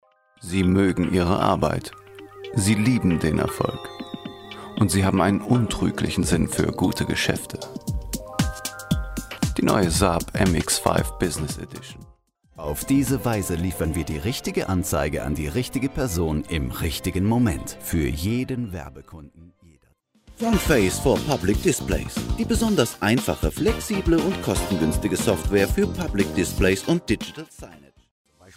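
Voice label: German, male; voice over talent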